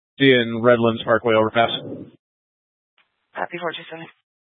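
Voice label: Incident Tac 3, Scanner audio recording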